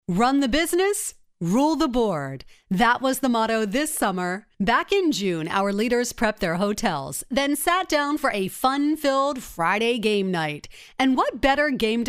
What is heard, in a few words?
Narration